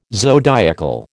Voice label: "zodiacal", American